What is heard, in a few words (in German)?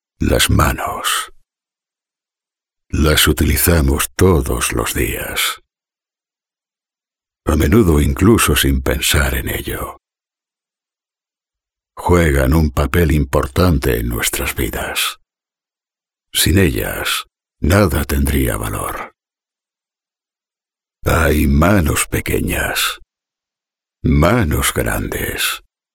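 Spanish, Kommentar Cool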